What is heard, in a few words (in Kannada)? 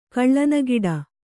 "ಕಳ್ಳನಗಿಡ", Kannada